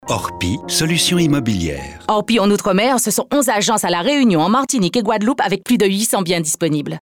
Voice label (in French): accent créole; ORPI OUTRE MER